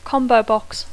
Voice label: "combobox", English; Dacco

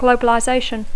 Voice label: "globalization", English; Dacco

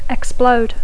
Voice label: "explode", English; Dacco

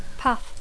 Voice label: "path", English; Dacco

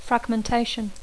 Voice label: "fragmentation", English

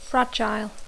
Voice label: "fragile", English

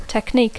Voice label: "technique", English; Dacco

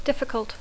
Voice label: "difficult", English

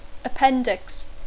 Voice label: "appendix", English; Dacco

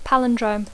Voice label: "palindrome", English; Dacco

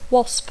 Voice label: "WASP", English; Dacco

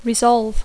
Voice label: "resolve", English; Dacco

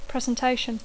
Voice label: "presentation", English; Dacco

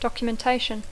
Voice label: "documentation", English; Dacco

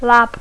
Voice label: "lab", English; Dacco

